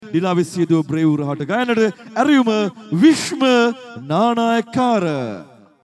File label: Announcer; Live In Panadura